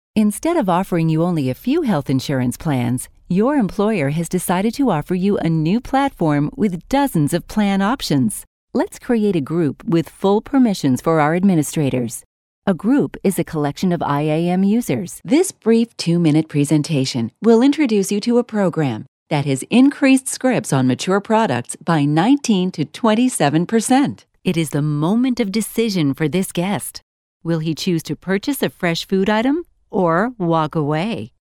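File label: English American, female, voice over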